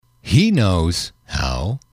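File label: "he knows how", English, male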